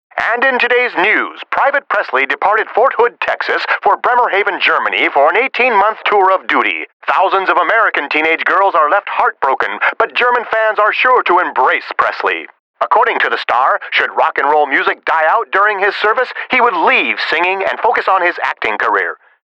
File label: Radio Announcer No Static